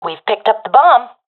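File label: see bomb pickup, professional female; vo; agents; Source Model Packs; Counter Strike Model Pack; CS2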